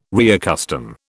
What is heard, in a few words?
"reaccustom", British